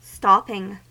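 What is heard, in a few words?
"stopping", US English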